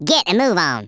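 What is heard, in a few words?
"come on then", Redneck, male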